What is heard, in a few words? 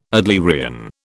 "Adlerian", British